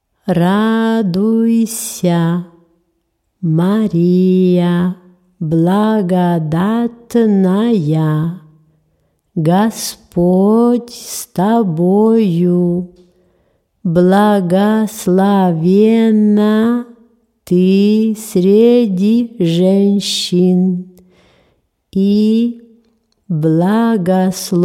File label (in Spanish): ave maria ruso lento